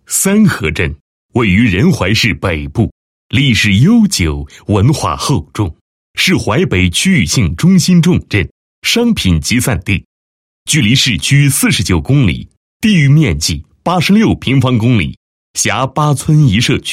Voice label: 男 地区; 三合镇创建国家级卫生乡镇

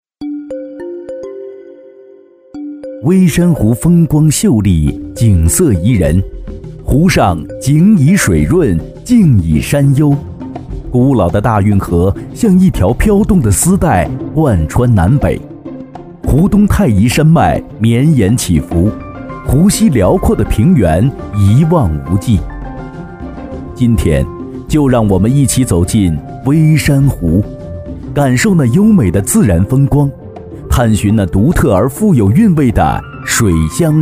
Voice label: 男, 纪录片; 舌尖范; 轻波柔浪微山美味

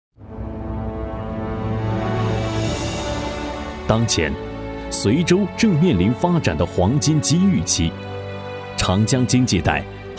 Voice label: 男 政府类专题

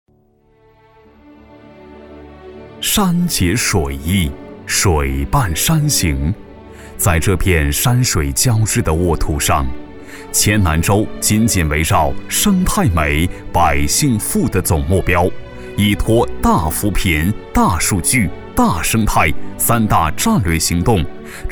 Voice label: 男 舒缓; 都匀毛尖茶人

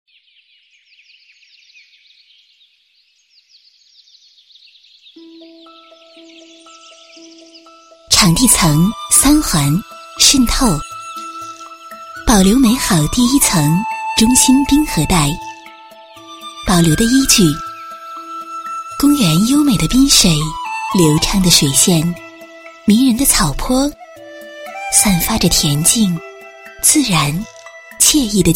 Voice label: 女 专题 滨水样音

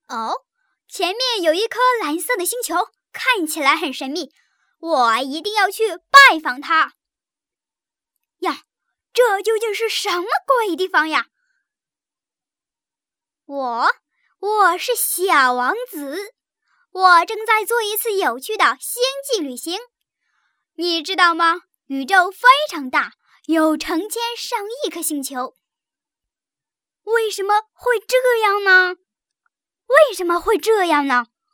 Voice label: 女 童声

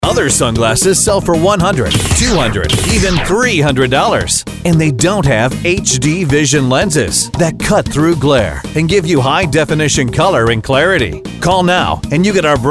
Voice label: 外籍男 零售促销广播广告; HD Aviators

